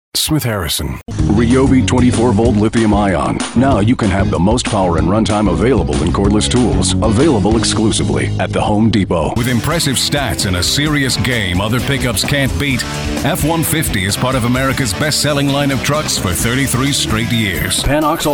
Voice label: M Commercial, voiceover mens